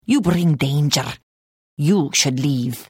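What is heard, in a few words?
Hello, Khajiit female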